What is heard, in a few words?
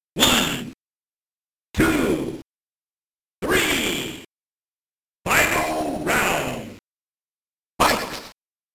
Narration Voice; king of the monsters 1 2 mega drive